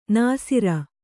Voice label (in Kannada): "ನಾಸೀರ", kannada